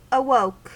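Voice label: "awoke", American English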